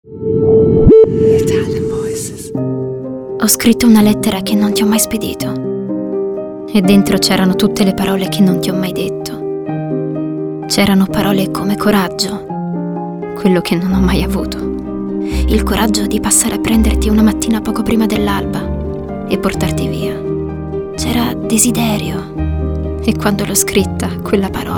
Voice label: ITALIAN VOICES, female voices; Naked Reel 2017